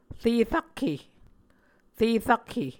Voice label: "re rakhe", Muscogee